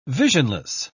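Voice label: "visionless", English, British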